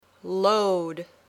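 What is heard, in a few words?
"load", English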